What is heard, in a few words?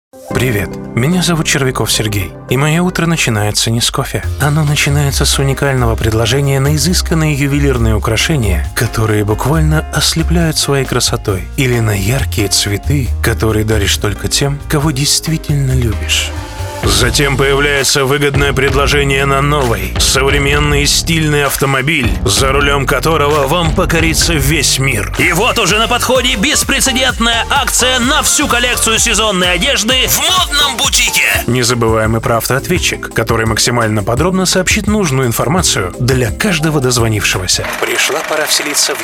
Promo